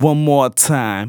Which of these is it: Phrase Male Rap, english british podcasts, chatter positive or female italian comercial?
Phrase Male Rap